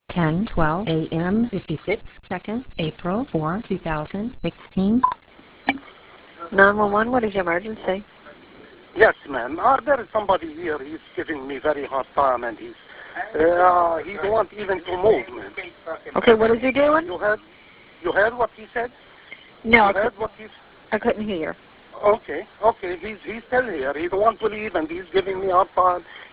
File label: 911 call